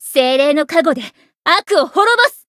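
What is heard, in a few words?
Voice variable chant, Japanese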